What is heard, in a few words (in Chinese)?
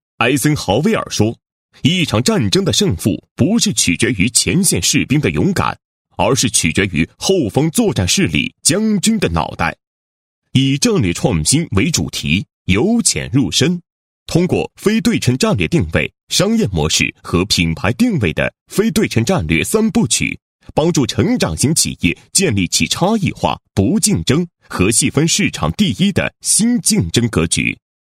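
男 专题, 企业专题 普通话; 企业非对称战略三部曲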